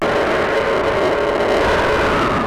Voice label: EMS voice; Syntec; Wall of Sounds; TECHNO-BOX